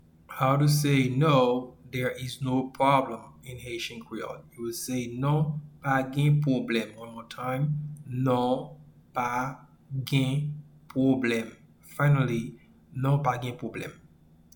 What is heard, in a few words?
No there is no problem in Haitian Creole Non pa gen pwoblem pronunciation